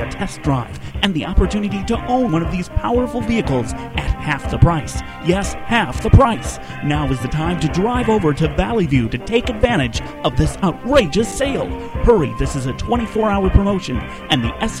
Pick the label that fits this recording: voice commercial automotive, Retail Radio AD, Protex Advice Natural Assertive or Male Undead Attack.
voice commercial automotive